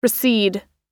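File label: "recede", US English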